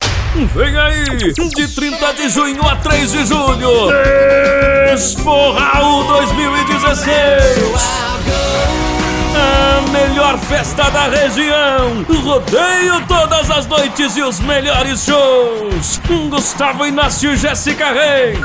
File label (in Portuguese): spot; gm exporaul